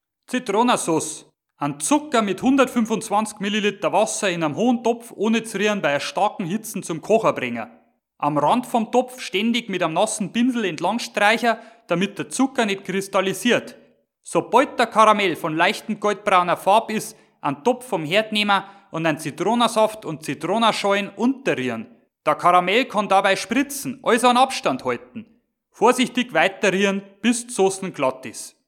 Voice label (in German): Bayer, Dialekte